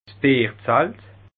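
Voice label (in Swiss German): "bierzalt", Bas Rhin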